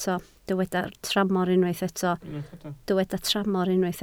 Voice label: "tramor", Welsh

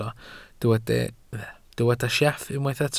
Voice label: "sieff", Welsh

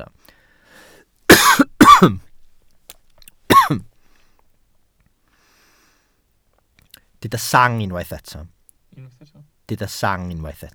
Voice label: "sang", Welsh

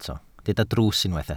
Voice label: "drws", Welsh

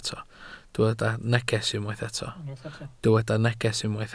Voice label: "neges", Welsh

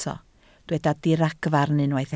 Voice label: "diragfarn", Welsh

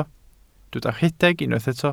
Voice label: "rhedeg", Welsh

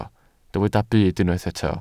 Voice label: "byd", Welsh

